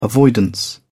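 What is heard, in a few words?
"avoidance", British English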